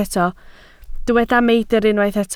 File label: "meidr", welsh